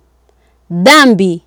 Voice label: "dhambi", Swahili

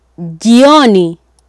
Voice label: "jioni", Swahili

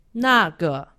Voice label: "nage", Chinese; Survival Chinese

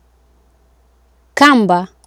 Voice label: "kamba", Swahili

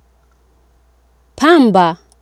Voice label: "pamba", swahili

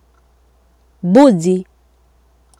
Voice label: "mbuzi", Swahili

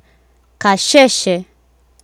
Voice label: "kasheshe", Swahili

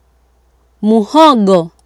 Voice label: "mhogo", Swahili

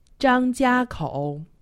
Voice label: "zhangjiakou", Chinese; Survival Chinese

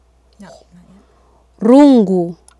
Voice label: "rungu", Swahili

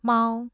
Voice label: "mao1", Mandarin tone

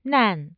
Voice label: nan4, Mandarin tone

